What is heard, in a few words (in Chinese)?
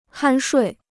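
"酣睡" Chinese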